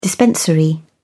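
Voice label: "dispensary", English, British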